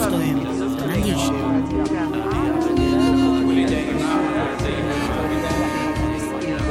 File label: English